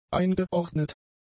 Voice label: "eingeordnet", German; adjectives

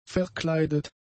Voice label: "verkleidet", German; adjectives

